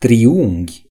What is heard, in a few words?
triangle, ro; gcompris